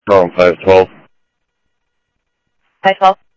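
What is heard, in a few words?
Lakes Sheriff Lake Patrol, scanner audio trunk; MCSO Lakes 1 SheriffLakePatro; ThompsonPeakScot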